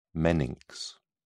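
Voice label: "meninx", British English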